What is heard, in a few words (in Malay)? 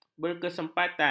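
"berkesempatan", Malay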